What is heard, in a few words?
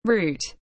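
"root", English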